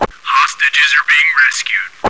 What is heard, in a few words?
hostage compromised, radio; Counter Strike Model Pack; CS:GO